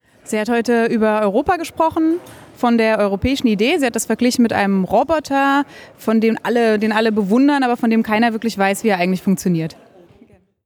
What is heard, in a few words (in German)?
kurze junge Frauenstimme